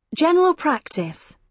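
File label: "general practitioner", British English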